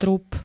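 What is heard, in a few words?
"trup", Slovak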